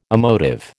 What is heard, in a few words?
"emotive", American